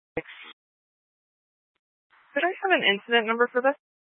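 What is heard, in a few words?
Incident Tactical, scanner audio recording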